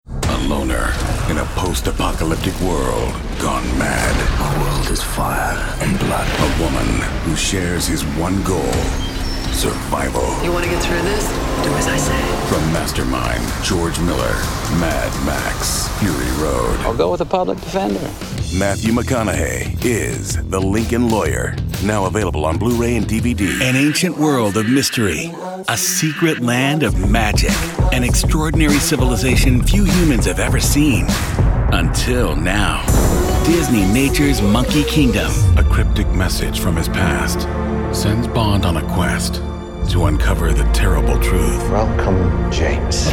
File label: Trailer